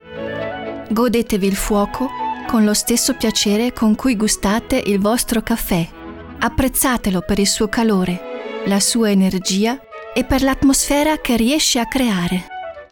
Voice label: IT K Fremdtake Freundlich

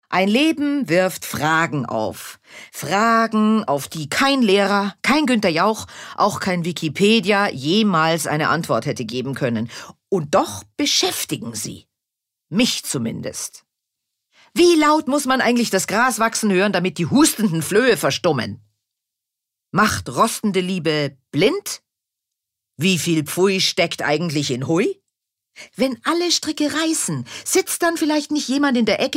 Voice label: Schauspiel Dynamisch

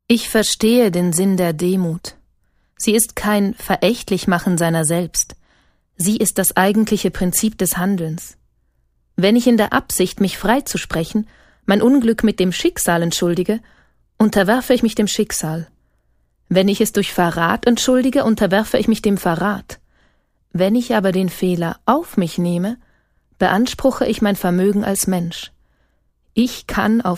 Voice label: Schauspiel Neutral

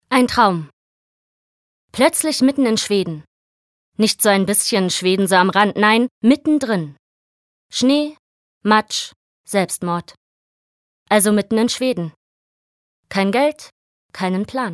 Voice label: Schauspiel Lebendig

